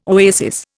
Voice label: "oasis", Indian